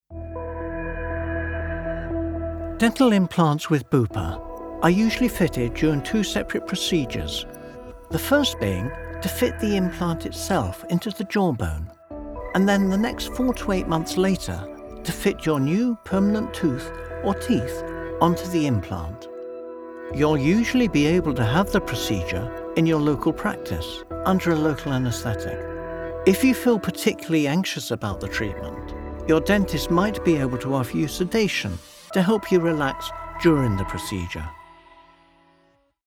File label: Medical narration; Bupa